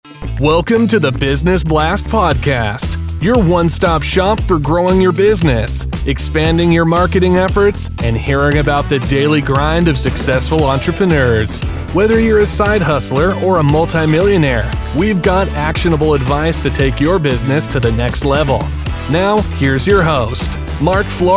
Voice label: Business Podcast Intro